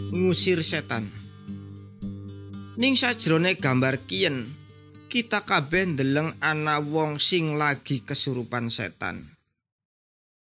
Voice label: good news jawa indramayu, javanese bible